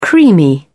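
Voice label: "creamy", English, British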